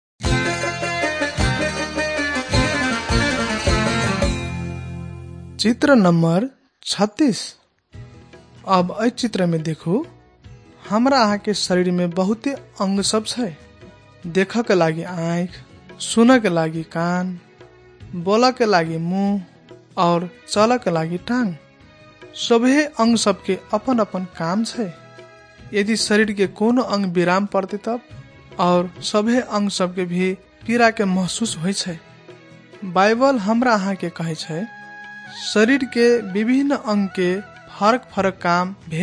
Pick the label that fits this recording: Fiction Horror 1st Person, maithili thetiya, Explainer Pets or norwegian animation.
maithili thetiya